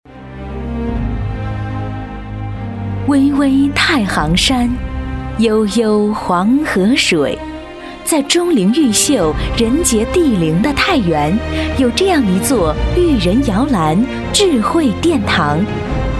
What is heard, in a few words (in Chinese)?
女 学校专题 稳重大气